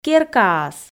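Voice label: "керкаас", Komi, female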